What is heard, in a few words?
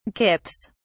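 "kips", British English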